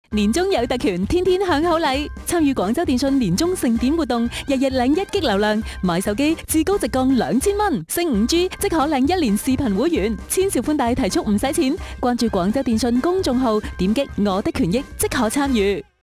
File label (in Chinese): 女粤, 粤语广告, 广东电信, 动感活泼